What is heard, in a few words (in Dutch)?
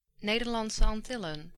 "Nederlandse Antillen", Dutch